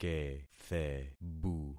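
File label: "kethebu", concatenated syllables; Affixing experiment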